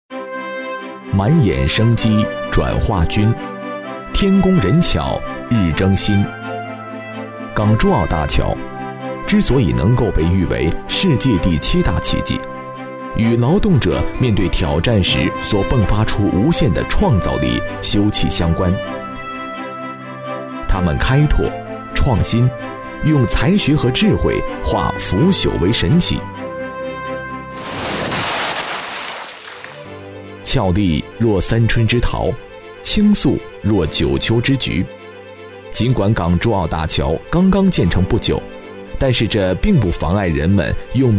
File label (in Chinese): 男 专题 港珠澳大桥